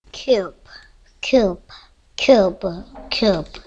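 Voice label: "cube", spoken word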